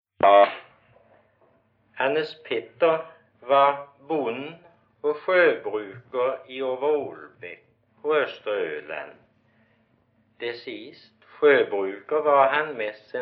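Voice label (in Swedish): Gårdby Öland, dialekter; Folkminnen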